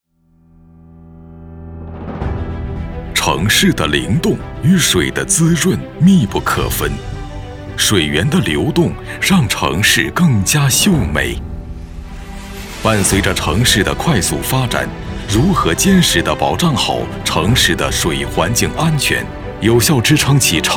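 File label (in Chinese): 专题汇报水利类, 男配音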